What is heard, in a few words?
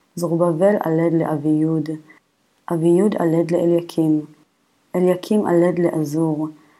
Matthew Reading Practice, Aramaic